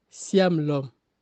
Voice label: "Juillet" Ewe

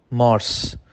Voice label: "Mars" Farsi

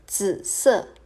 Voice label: Mauve Mandarin

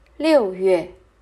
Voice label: "Juin" Mandarin

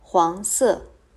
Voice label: Jaune Mandarin

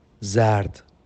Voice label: Jaune Farsi

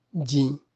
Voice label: Rouge Ewe

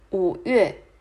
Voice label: "Mai" Mandarin

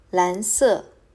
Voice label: "Bleu" Mandarin

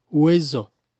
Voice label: "Bienvenue" Ewe

